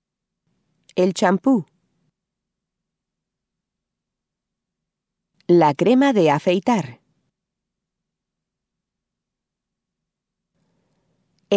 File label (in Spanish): vocabulario higiene personal; textbook; Chapter 7